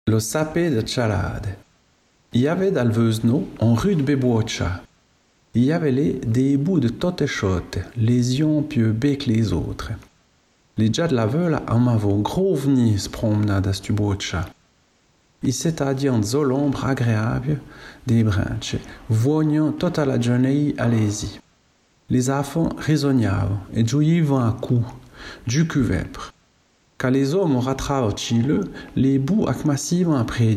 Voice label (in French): conte Noel patois romands